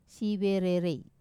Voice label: "sivererei", star, Wamesa